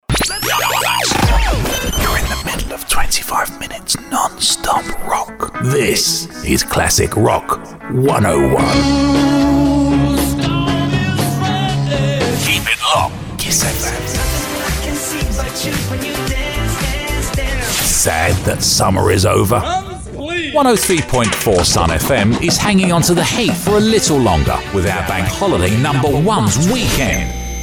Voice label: English voice over radio spots